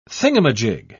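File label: "thingumajig", British English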